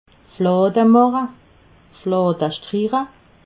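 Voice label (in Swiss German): "flademache", Alsatian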